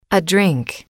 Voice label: "a drink", English